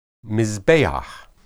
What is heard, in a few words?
"mizbeah", Hebrew